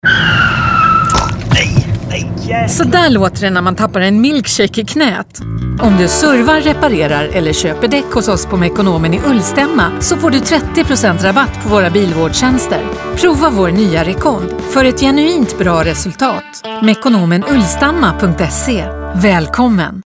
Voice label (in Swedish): Radioreklam